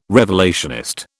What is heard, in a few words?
"revelationist", British